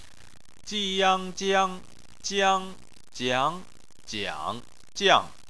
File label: "jiang", chinese